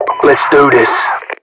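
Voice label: British boost; Voiceovers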